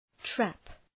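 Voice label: "trap", English; {træp}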